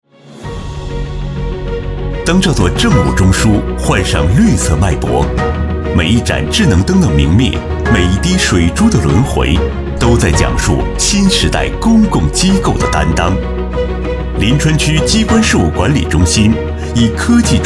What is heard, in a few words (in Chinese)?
男 年轻宣传片; 能源革命